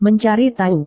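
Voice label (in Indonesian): "mencari tahu", Indonesian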